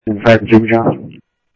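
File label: Mesa Police Department simulcast, scanner audio; MESA PD 2 Centrl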